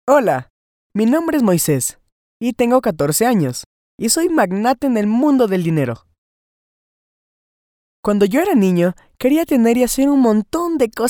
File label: Teenager